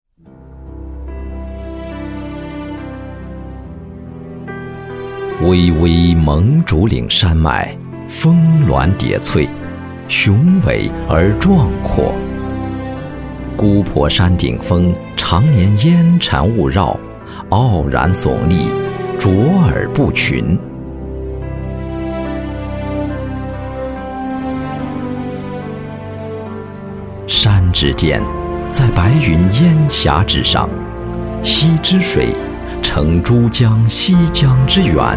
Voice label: 专题 政府 讲述; 贺州

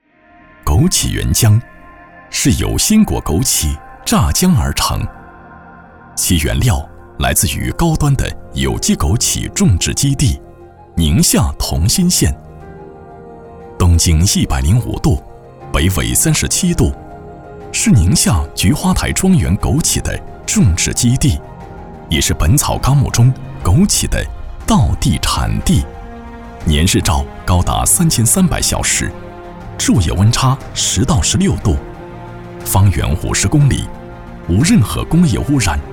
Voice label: 男国 专题 产品 自然; 枸杞原浆

